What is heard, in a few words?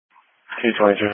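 Police Patrol (Dispatch)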